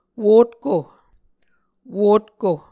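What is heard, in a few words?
"wotko", Muscogee word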